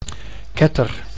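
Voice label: "ketter", Dutch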